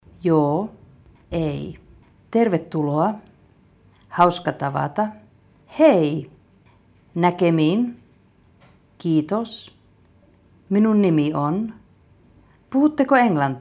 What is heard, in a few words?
Finnish